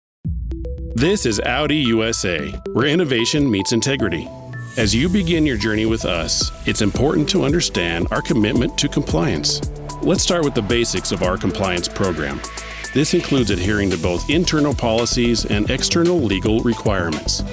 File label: Narration Compliance